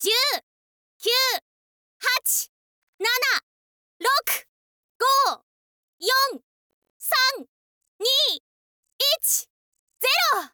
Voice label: countdown, girl